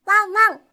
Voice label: "wanwan", doubutsu